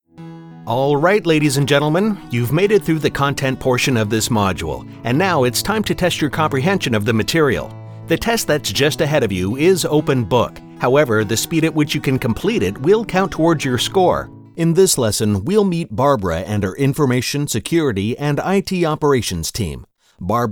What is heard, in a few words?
eLearning